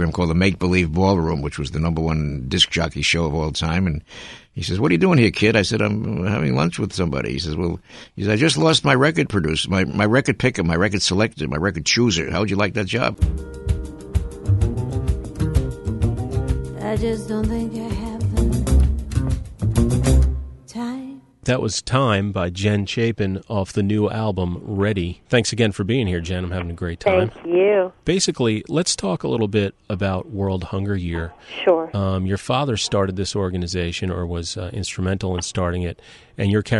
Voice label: RADIO HOST